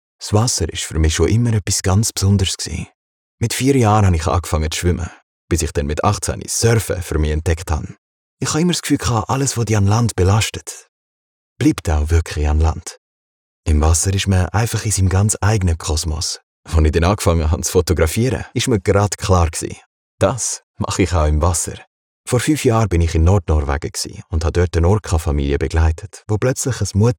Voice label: german switzerland documentaries; hauptberuflicher Sprecher für Deutsch